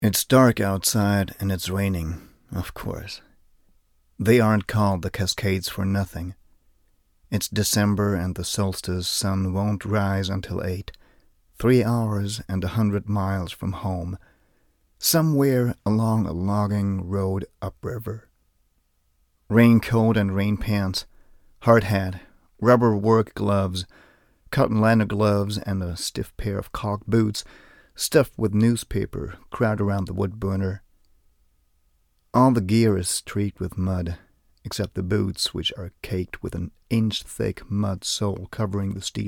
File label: english; oregon treeplanting nostalgia